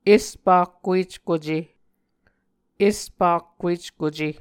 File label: "espakkueckuce", Muscogee; Mvskoke Words with Repeated Consonants; Onvkv Ohhvyayices